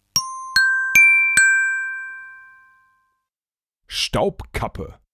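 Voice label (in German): "Staubkappe", German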